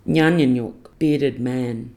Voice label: "nganinyuk" bearded man